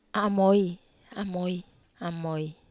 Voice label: "amoi", wamesa

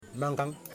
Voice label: "Arm", Yimas

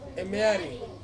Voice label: "mieri", Ansus; alone

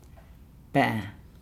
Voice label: "oyster shell", Nukuoro

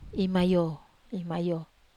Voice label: "mayo", Wamesa

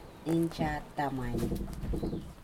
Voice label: "rooster", Aneityum

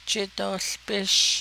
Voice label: navajo; boil it 4th person; jidoołbish

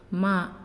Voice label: "Earth", Naasioi

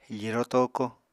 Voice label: "firo toko", Apatani

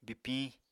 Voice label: "bipiñ", Apatani

